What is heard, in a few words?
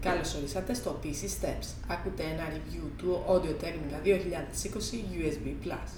voice 50cm back